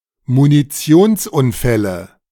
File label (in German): Munitionsunfälle, De